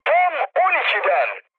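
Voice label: bomb success, mood high, Turkish; speech; War Thunder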